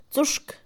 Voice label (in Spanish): Mixe, Mujer; verde; colores; INALI